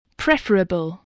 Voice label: "preferable", voice, spelling